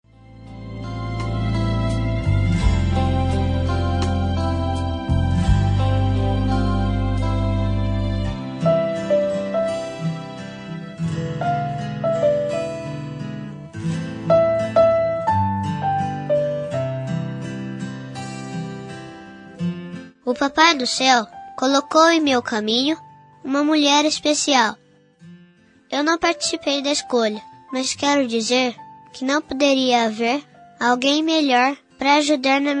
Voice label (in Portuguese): voz infantil madrinha masc, Aniversário Voz Infantil – Madrinha – Voz Masculina